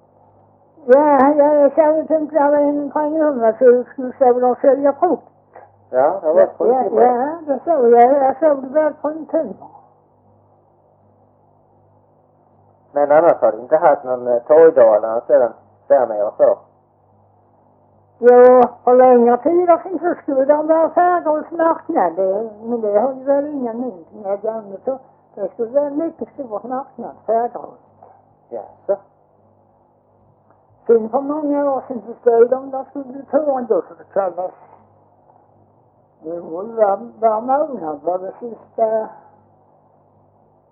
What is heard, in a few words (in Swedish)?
Skånes Fagerhult Skåne, Svenska sagor dialekter; Folkminnen